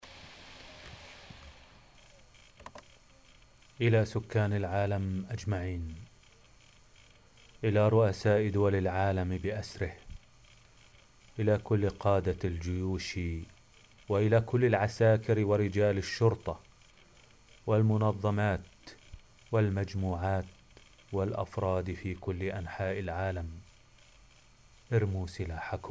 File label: global disarm call, Arabic